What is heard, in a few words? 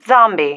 "zombie", female